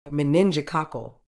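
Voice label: "meningococcal", British English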